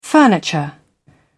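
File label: "furniture", British English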